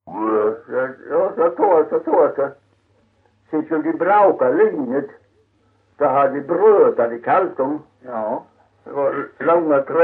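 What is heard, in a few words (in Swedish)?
Kyrkhult Blekinge, dialekter; Folkminnen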